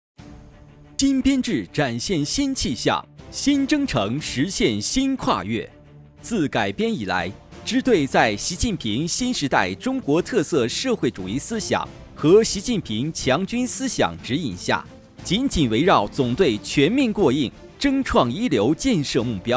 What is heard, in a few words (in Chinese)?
大气 党政